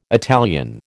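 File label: "Italian", American English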